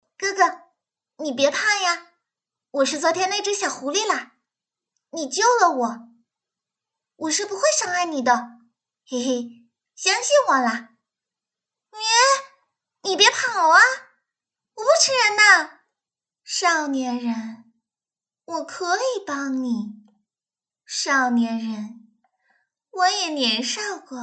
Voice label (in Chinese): 女角色 萝莉 少女 少御